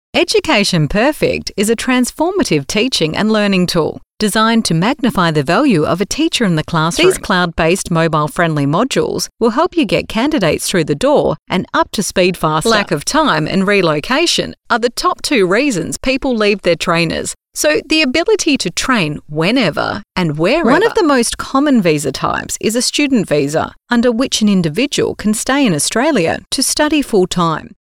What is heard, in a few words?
Corporate